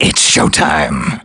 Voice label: battlecry; snake